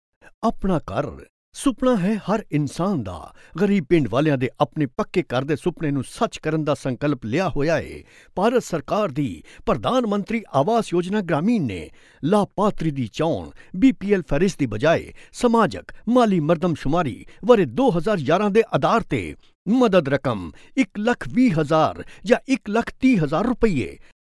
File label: PUNJABI MALE